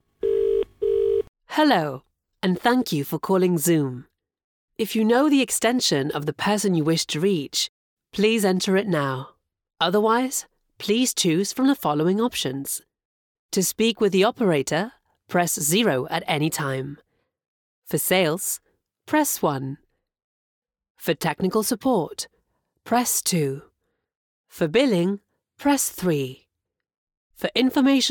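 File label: Italian, female, English UK, IVR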